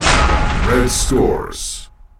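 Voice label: red capture, announcer; ctf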